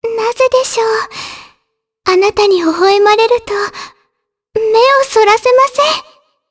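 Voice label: voice dia, voices heroes, Japanese; Shea; kingsraid audio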